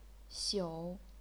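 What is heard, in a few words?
"xiu", chinese pinyin, third tone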